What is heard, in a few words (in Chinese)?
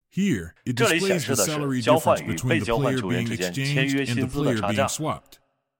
引导对话男; 这里是交换与被交换球员之间签约薪资的差价。; nba